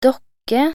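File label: "dokke", Norwegian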